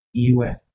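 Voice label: "iuHe", Proto-Indo-European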